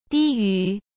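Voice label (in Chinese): "低于", Mandarin Chinese; hsk; chinese-flashcards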